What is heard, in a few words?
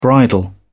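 "bridal", English, British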